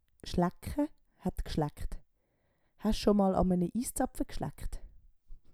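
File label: "schlaecke", Swiss German vocabulary; Wortschatz Kapitel 8; Kapitel 8 Zusatzwortschatz 3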